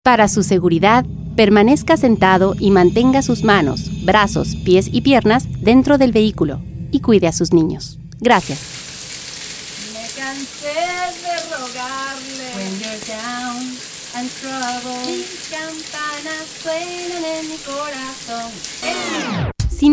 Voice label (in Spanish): Sp, spanish females